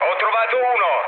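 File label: Italian player killed enemy mood low, speech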